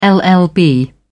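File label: "llb", British English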